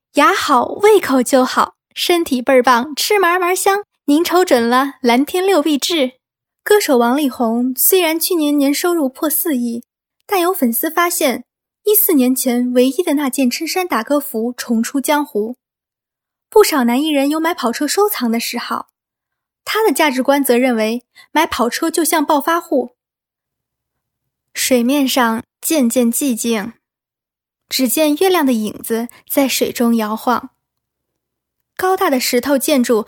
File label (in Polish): Chinski